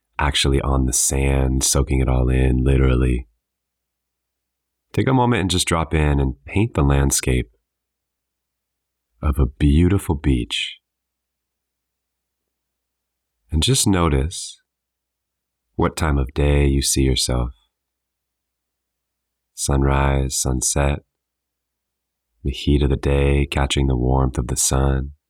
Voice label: Beach Getaway Meditation; VISUALIZATION